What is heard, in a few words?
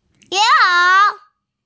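"ying1er2", Mandarin Chinese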